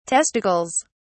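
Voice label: "testicles", English, British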